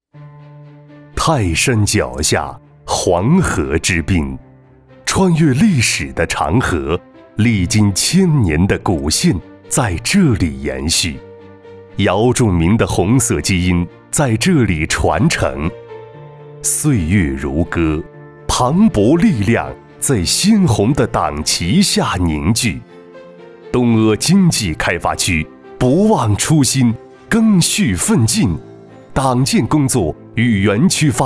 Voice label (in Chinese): 大气宣传片